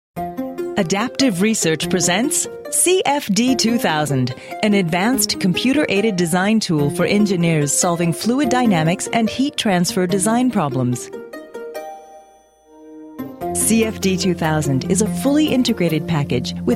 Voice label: Technical Presentation